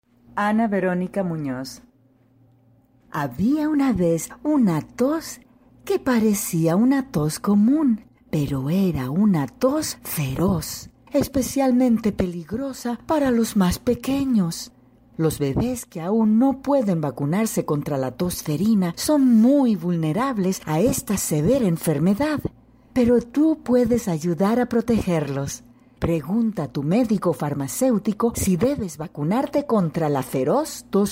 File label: Spanish